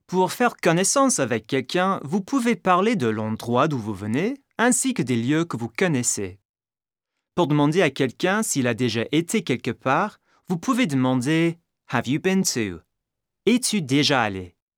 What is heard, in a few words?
French; French Language